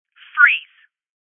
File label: Voices Freeze Female